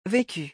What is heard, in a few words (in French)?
"vécu", French